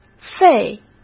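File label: "费", Mandarin Chinese; hsk; chinese-flashcards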